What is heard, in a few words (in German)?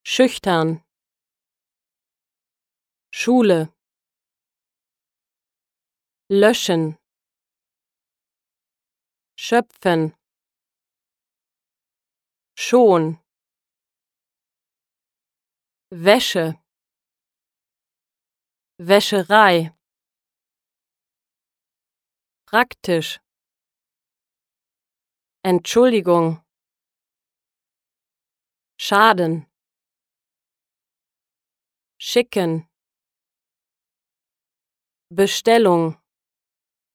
breiter zischlaut